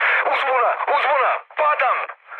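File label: Serbian aircraft destroyed mood low, speech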